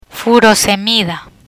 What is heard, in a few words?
"Furosemida", Spanish